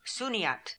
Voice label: "Sooniat", pronounciations; Fourth Way; Beelzebub